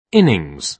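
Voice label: "innings", British English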